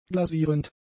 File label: "glasierend", German; adjectives